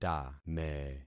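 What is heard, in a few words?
"tame", concatenated syllables; Affixing experiment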